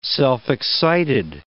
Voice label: "self-excited", English

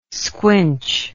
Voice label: "squinch", English

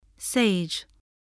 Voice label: "sage", English spelling

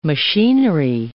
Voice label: "machinery", English

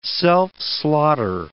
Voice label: "self-slaughter", English

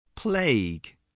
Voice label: "plague", English

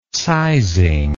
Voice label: "sizing", words

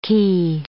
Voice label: "cay", English

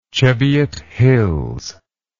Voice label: "cheviot hills", English

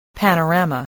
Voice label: "panorama", English voice

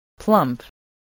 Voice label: "plump", English

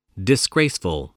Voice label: "disgraceful", spelling

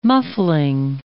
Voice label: "muffling", English

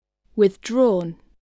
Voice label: "withdrawn", voice, english

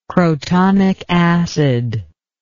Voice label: "crotonic acid", english

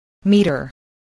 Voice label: "meter", voice, spelling

